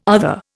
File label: "other", Indian